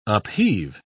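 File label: "upheave", British English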